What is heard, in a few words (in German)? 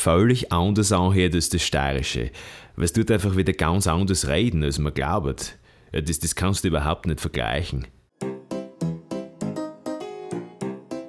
dialekt music; LOFT VOICES